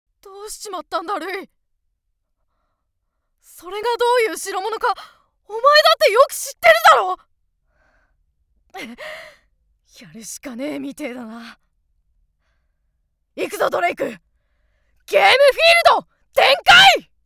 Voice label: boy